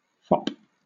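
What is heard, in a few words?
"fop" British English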